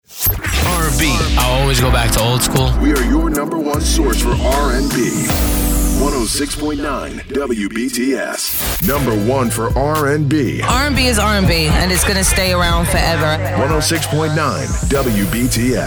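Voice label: radio male urban adult contemporary; radio imaging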